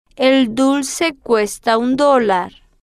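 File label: el dulce cuesta un dolar, Spanish, slow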